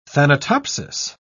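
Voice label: "thanatopsis", English, British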